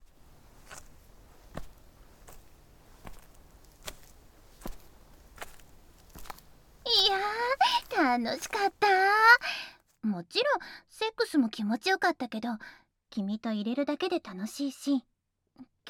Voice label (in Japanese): 歩きながらのピロートーク